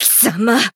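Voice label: Voice damaged, Japanese; hinagiku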